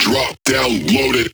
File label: Vocal Phrase Male "Drop"; bass house